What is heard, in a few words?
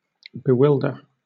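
"bewilder", British English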